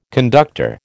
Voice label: "conductor", American